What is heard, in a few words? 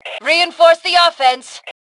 Attack Reinforce, Female; T2 Female 03